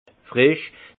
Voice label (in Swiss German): "frisch", alsace; frais; vin